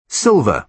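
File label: "silver", British English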